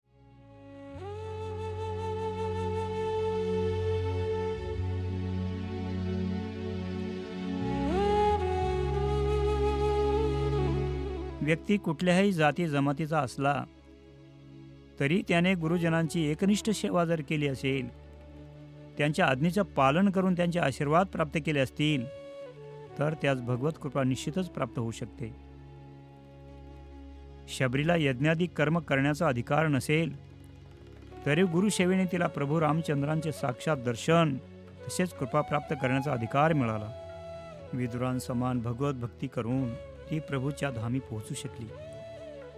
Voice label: Narration; Shabri Drama; Gopals Studio